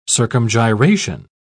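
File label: "circumgyration", British English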